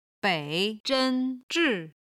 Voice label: "bei3 zhen1 zhi4", Chinese